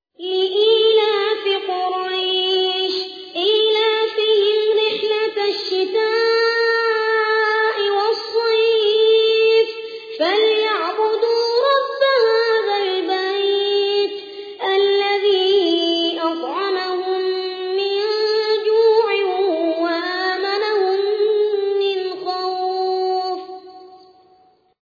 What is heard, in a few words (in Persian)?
ترتیل سوره قریش; محمد البراک